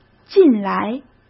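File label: "进来", Mandarin Chinese; chinese-flashcards; hsk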